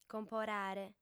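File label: "comporare", female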